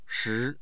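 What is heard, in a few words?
"shi2", Mandarin Chinese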